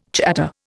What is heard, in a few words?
"chatter", Indian